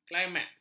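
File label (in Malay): "klimaks", Malay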